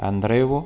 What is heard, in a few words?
"andreva", Occitan